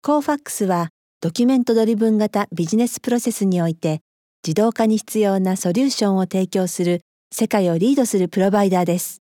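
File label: Japanese IVR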